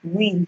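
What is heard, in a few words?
"will", Nigerian Pidgin, female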